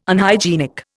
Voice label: "Unhygienic", Indian